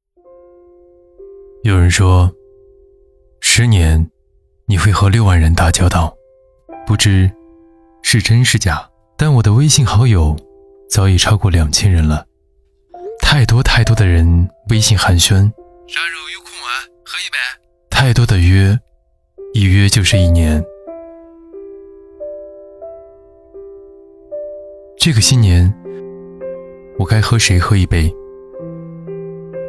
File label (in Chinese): 男 旁白 纪录; 赊店元青花; 赊店元青花纪录